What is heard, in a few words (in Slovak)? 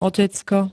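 "otecko", Slovak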